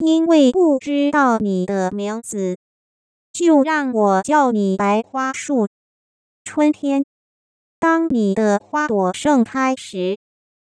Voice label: Synthetic Speech, Mandarin singing voice synthesis, Using spectrum-progression model and HNM, Pitch: 220Hz; Avg. syllable duration: 300ms; A white-flower tree (白花樹)